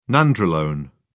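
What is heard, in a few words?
"nandrolone", British English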